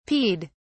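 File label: "peed", English, British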